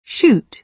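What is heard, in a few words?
"shoot", British English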